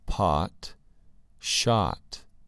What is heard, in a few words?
"pot" "shot"